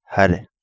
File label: Kapingamarangi; Hare